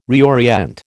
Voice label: "reorient", American

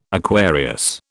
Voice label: "Aquarius", British

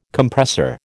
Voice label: "Compressor", American

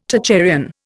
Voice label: "Tocharian", Indian

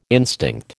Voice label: "instinct", American

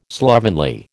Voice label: "slovenly", American

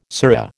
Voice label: "surra", American

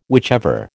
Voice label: "whichever", American

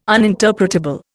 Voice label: "uninterpretable", Indian

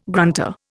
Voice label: "grunter", Indian

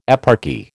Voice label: "eparchy", American

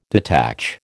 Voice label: "detach", American

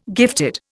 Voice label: "gifted", Indian

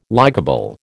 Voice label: "likeable", American

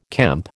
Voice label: "kemp", American

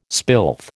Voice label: "spilth", American

